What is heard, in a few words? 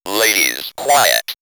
Robot Ladies Quiet!, robot vox; X-Static Goldmine